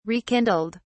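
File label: "rekindled", British English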